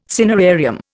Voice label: "cinerarium", Indian

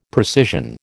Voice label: "precision", American

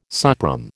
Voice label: "suprÈme", American

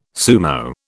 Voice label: "sumo", British

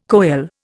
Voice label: "coele", Indian

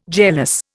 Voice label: "jealous", Indian

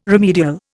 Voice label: "remedial", Indian

